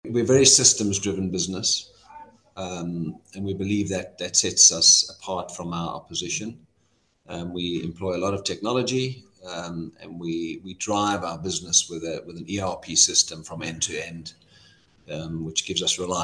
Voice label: Interview Quote; Alclad; Alclad Shopfitting